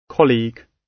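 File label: "colleague", British English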